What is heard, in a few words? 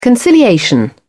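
"conciliation", British English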